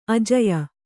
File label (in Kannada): "ಆಜ್ಯ", Kannada